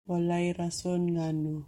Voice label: Cebuano